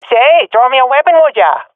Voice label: request weapon, swat fem; vo; agents; Source Model Packs; Counter Strike Model Pack; CS2